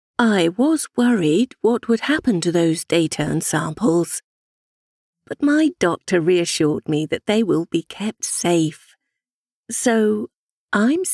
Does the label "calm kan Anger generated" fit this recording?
No